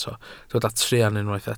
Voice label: "truan", Welsh